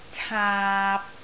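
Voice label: "caap3", Cantonese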